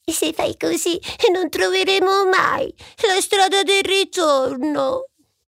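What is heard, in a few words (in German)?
Italian, Charge Comic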